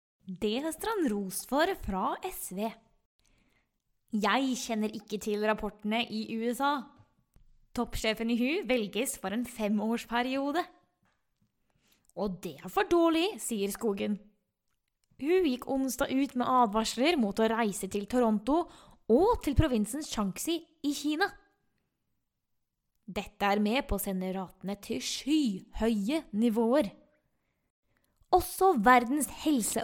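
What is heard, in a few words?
Norwegian General; GoLocalise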